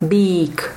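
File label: "BÝK", Slovak; HearingGame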